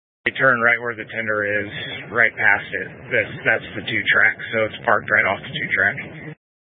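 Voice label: Incident Tactical 1, Scanner audio recording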